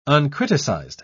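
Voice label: "uncriticized", British English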